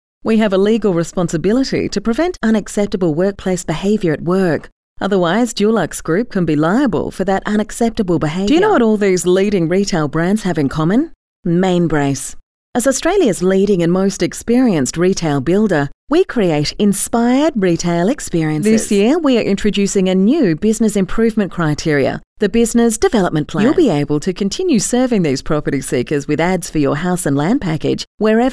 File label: Corporate